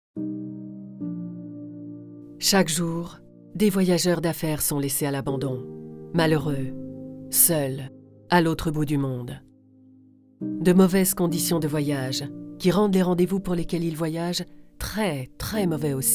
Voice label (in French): Voix vidéo; AirPlus